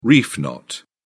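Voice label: "reef knot", English, British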